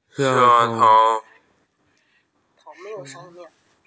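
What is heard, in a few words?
"tongue", Mandarin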